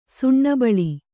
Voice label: "ಸುಣ್ಣಬಳಿ", Kannada